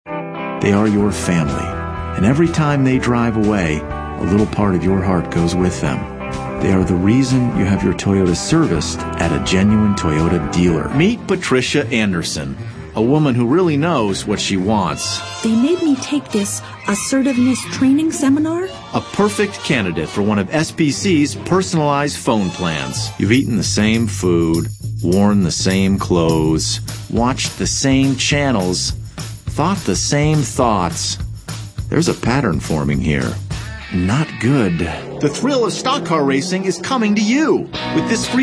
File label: male, mid30s mid40s warm